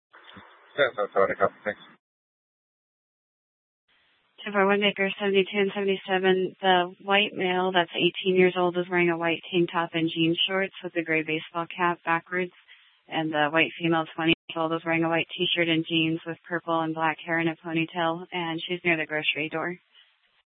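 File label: Police Department Dispatch; Mesa PD; 4 Superstition Dispatch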